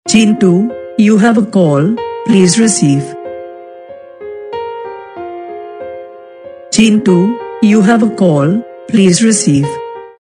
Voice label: you hav a call please riceve saying